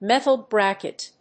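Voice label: "metal bracket", English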